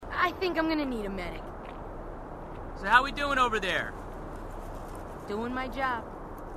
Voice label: marine conversation; h2dialogue; marines